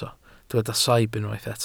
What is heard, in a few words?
"saib", Welsh